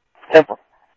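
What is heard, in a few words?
police department, scanner audio trunk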